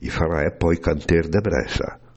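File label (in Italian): I farà èd po’ a’i cantèr de Brèssa?, bergamasco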